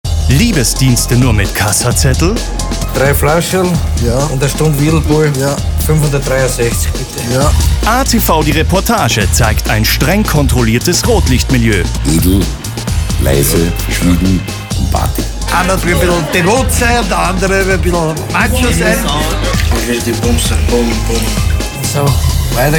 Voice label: male, Austrian, German with light Austrian accent TV promo